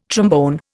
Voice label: "trombone", Indian

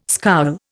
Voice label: "scowl", Indian

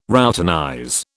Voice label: "routinize", British

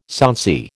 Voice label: "sonsy", American

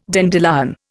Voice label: "dandelion", Indian

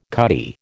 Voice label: "cutty", American

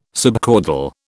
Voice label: "subcaudal", British

